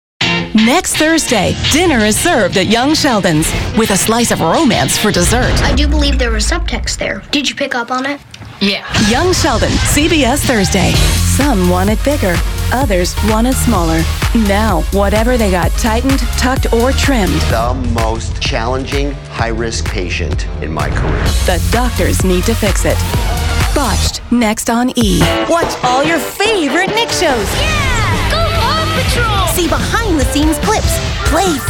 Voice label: promo

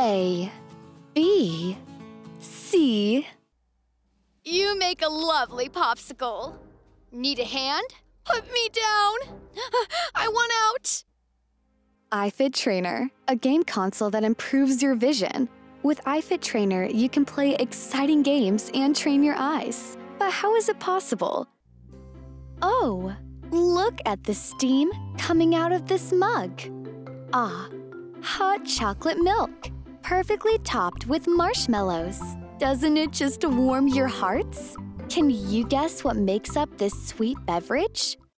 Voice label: Animation

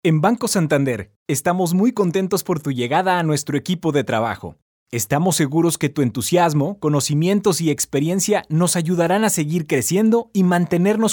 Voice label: E-Learn

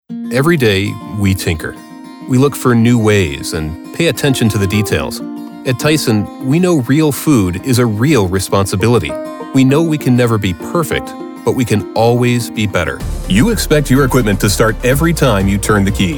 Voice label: Corporate Narration

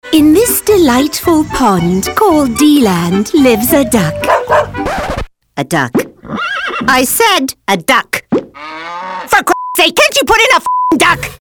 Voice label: Comedy

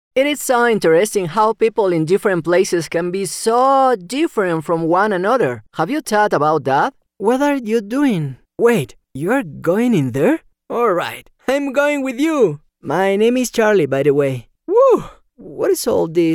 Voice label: Kid English Latin

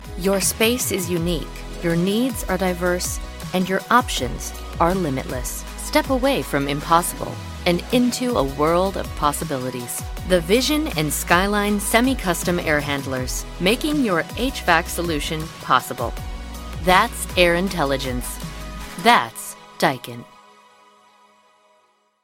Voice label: Business Explainer; Daikin

